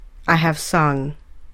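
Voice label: "I have sung", English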